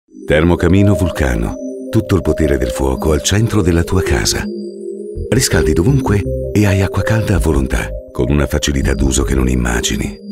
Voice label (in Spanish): Masculino